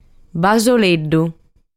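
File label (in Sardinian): "Basoleddu", Sardinian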